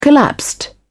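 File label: "collapsed", British English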